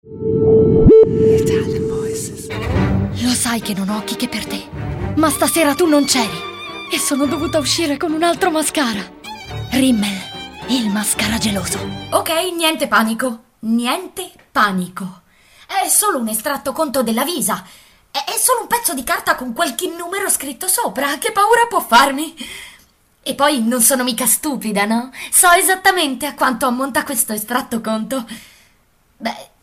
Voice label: ITALIAN VOICES Acting Dubbing, female voices